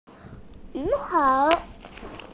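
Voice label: "ni hao", Mandarin Chinese, female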